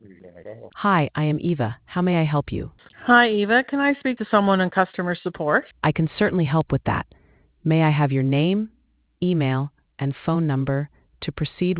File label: conversation